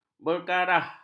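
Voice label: "berkarah", Malay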